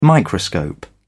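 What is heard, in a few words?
"microscope", British English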